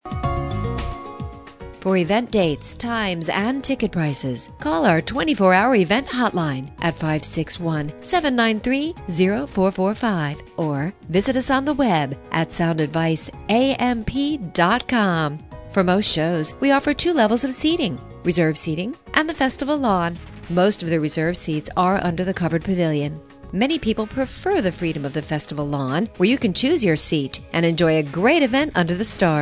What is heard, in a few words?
on hold message; entertainment; Sound Advice Amphitheatre